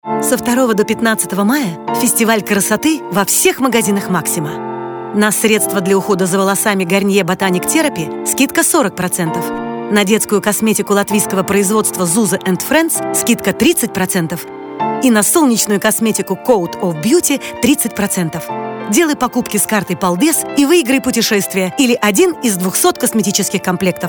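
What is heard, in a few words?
Russian, female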